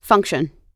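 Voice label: "function", US English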